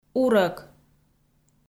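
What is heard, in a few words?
"урӧк", Komi, female; kpv audio dict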